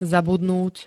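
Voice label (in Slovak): "zabudnúť", Slovak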